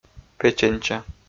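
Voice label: "печенча", Komi, male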